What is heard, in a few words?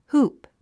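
"hoop", English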